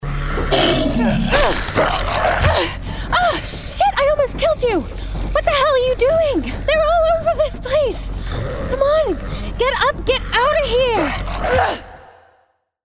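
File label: Gaming Action Character US, female; Action Character – American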